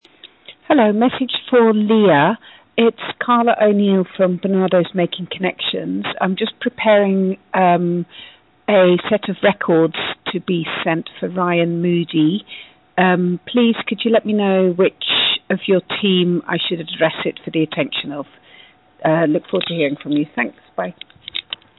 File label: call recording